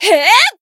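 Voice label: attack, Japanese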